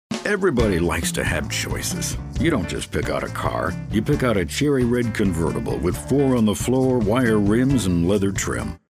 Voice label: cocky straight guys next door

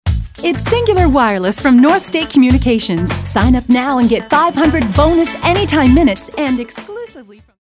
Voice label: high energy

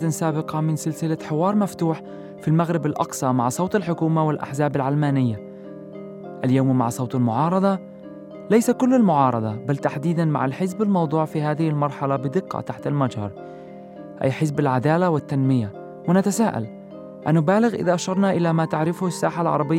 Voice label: Arab

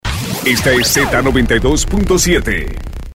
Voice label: Radio Imaging

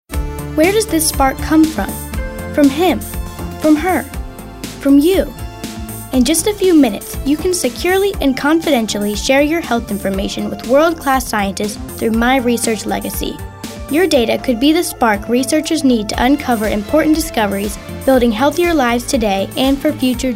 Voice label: thoughtful

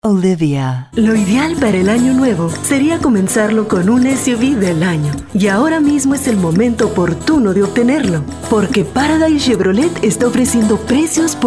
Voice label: Spanish Showcase

